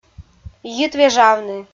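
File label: "йивежавны" Komi, female; audio dict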